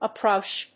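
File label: "uprush", English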